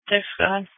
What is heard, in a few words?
Sheriff Dispatch